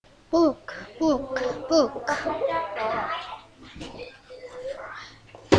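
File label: "book", spoken word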